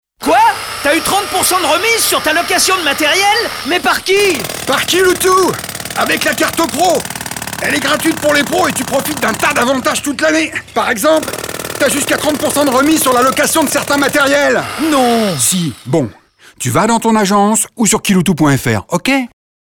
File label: Radio; Kiloutou